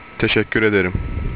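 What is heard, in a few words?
thank you, Turkish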